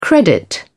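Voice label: "credit", British English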